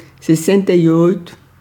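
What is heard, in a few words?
Portuguese numbers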